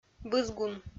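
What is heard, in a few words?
"бызгун", Komi-Zyrian, female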